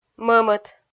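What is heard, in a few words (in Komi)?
"мӧмӧт", Komi, female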